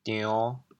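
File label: "dion5", Teochew, chaoyang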